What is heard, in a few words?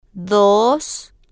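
"dos", spanish, slow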